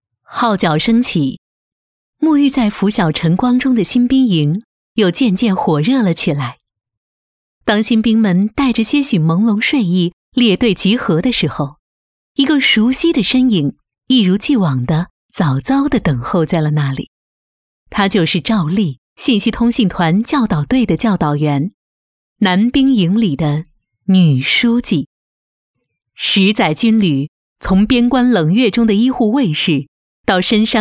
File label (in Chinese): 女 颁奖